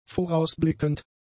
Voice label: "vorausblickend", German; adjectives